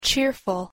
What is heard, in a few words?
cheerful; harrypotter